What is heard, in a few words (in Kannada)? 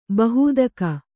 "ಬಹೂದಕ", kannada